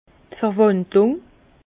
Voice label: "verwandlung", Bas Rhin; vin; mutage

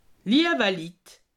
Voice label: "litt", alsace

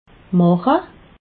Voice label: "mache", alsace

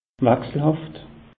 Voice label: "wachselhaft", alsace; meteo

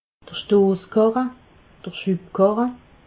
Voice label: "stosskarre", alsace

